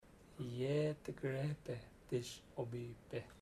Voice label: I not know how work, chamacoco; Bahia Negra